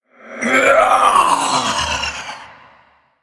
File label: Male Spirit Death